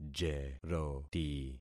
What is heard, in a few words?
"jeroti", concat syllables; Affixing experiment